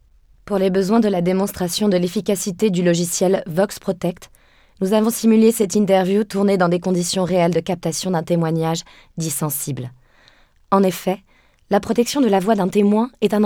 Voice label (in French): FR TEMOIN ORIGINAL